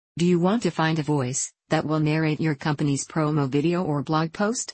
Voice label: en-US; Joanna